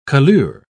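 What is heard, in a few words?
"colure", British English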